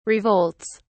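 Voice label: "revolts", British English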